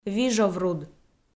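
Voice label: "вижовруд", Komi, female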